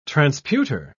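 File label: "transputer", British English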